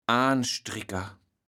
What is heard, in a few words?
"Aanstrikker", platt